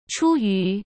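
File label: "出于" Mandarin Chinese; hsk; chinese-flashcards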